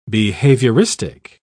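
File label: "behavioristic", en, uk British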